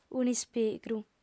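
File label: "un'ispigru", lingua sarda, female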